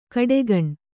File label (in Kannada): "ಘಟಾಗ್ನಿ", Kannada